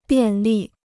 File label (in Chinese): "便利", Chinese